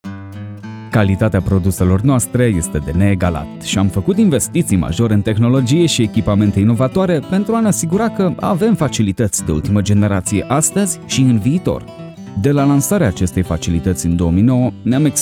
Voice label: romanian corporate videos

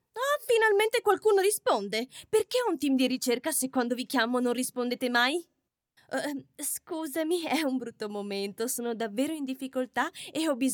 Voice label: italian podcasts; professionelle italienische Schauspielerin

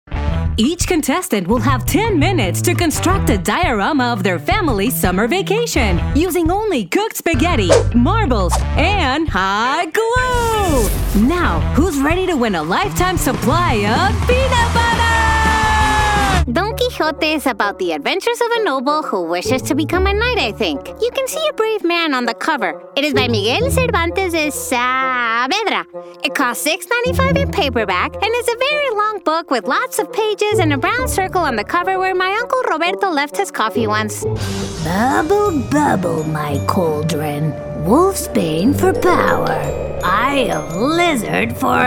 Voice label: spanish mexican animation

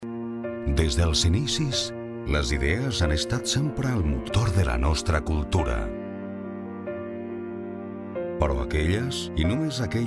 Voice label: Catalan commercial

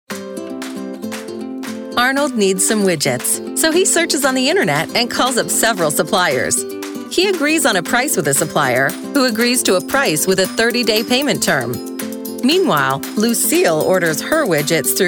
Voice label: English Canadian corporate videos; professional English voice actor

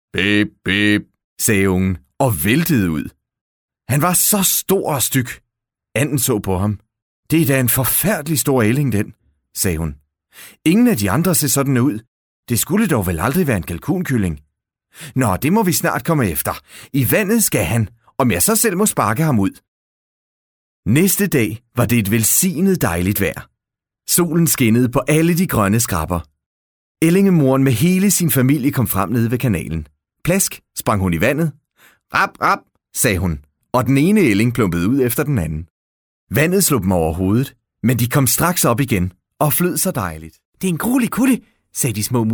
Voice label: Danish audiobooks

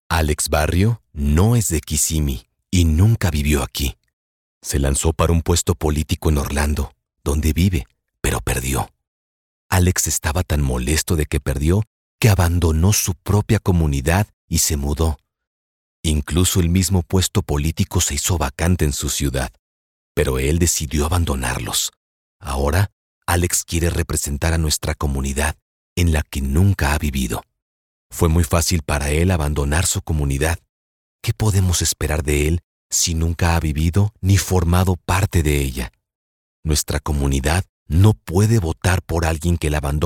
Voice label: spanish latin american political ads

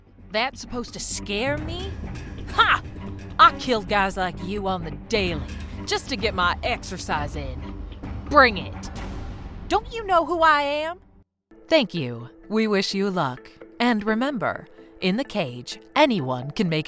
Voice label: english american animation

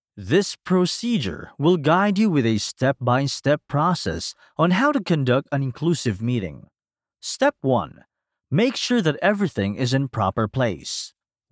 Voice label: english e-learning

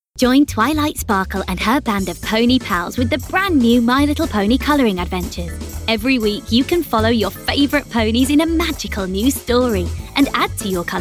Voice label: english british commercial

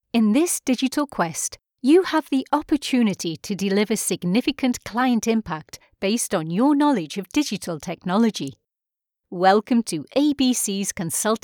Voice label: English British e-learning

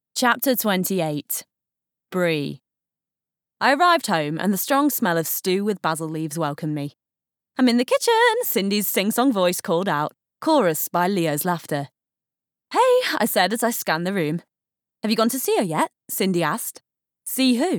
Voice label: english british audiobooks

